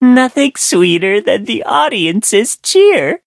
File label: levelup en; cookie run